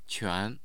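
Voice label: "quan2", Mandarin Chinese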